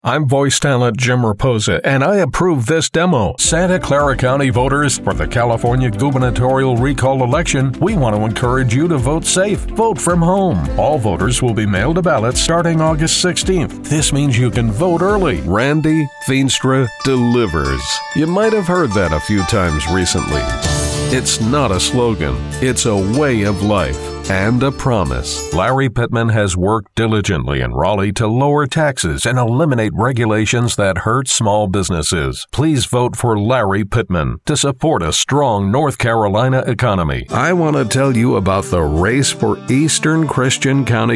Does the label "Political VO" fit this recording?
Yes